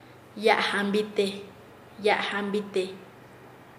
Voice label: Nasa Yuwe; jigra de colores; paez

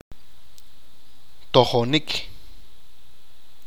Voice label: Bribe, achi

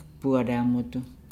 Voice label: "bua laangudu", Nukuoro

